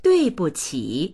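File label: "dui4buqi3", Chinese; 对不起; すみません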